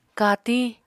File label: "katiñ", Apatani